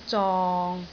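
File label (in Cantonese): "zong6", Cantonese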